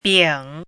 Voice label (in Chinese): "bing3", pinyin